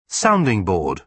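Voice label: "sounding board", British English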